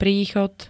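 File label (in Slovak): "príchod", Slovak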